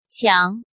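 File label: "Qiáng", Chinese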